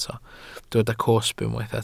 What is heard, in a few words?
"cosb", welsh